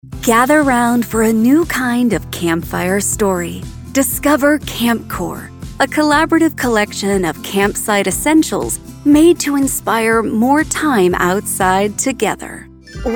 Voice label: Commercials, american